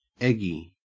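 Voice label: "eggy", Australian English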